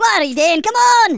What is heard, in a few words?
"Hurry", Angry Scots; Worms Armageddon